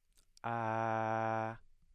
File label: low front unrounded vowel